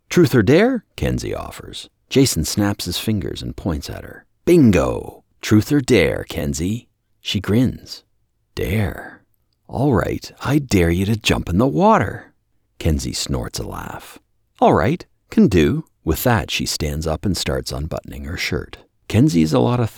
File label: Romance Novel Narration